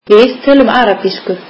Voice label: we speak Arabic, Icelandic